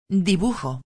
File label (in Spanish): "dibujo", espanhol; palavra do dia